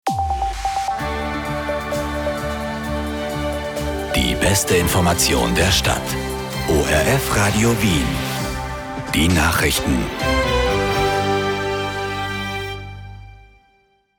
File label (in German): Nachrchten kurz neutral; News